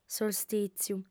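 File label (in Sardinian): "solstìtziu", female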